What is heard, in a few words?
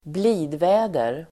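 "blidväder", Swedish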